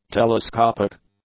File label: "telescopic", English; adjectives